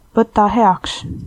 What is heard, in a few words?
you're welcome, estonian